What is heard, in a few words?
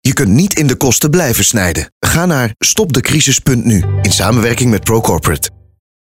Corporate Spot